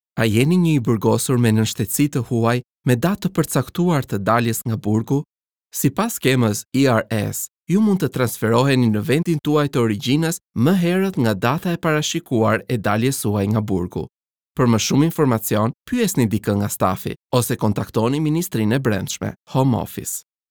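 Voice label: Albanian male Narration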